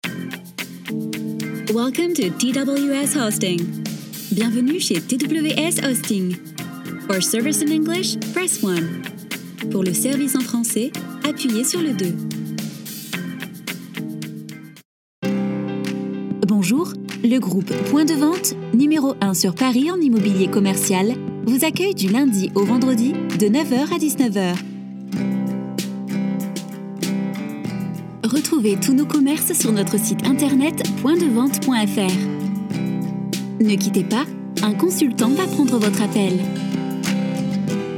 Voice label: IVR